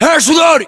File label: inform reloading, US